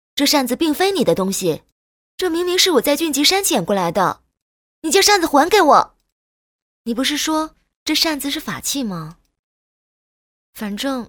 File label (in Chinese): 女 角色; 杨幂; 三生三世白浅